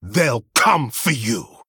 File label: Male Human Attack